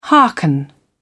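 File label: "hearken", British English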